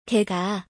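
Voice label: a dog, Korean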